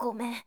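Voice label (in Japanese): "gomen", Japanese boy; shonen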